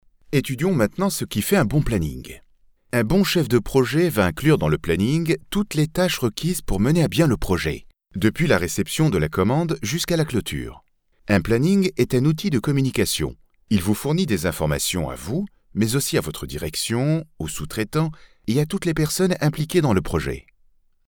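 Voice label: French, male, ELEARNING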